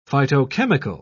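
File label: "phytochemical", British English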